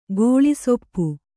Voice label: "ಕಾಳಿಸೊಪ್ಪು", Kannada